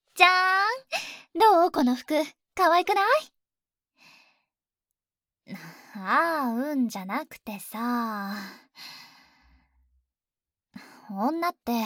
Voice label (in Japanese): 恋人ボイス 女子高生